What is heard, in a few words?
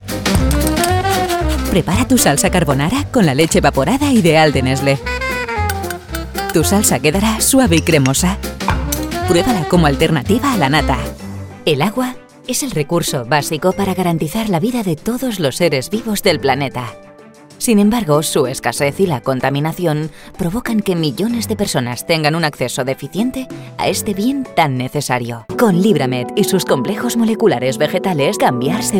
European Spanish Female Voiceover Reel